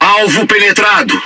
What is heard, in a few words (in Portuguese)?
Speech Hit Confirmation, PT BR; World Of Warships